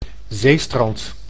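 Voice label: "zeestrand", Dutch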